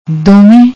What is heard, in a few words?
"doven", Punjabi; ਦੋਵੇਂ